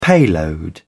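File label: "payload", British English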